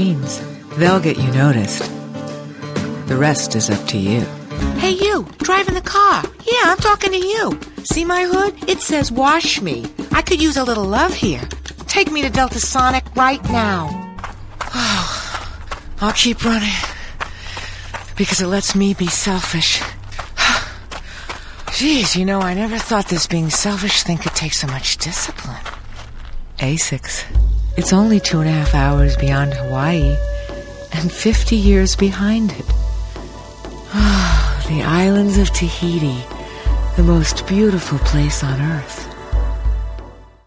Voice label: Women Commercial